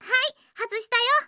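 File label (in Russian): zvuki s golosom iaponskoi anime devushki, Japanese anime girl voice sounds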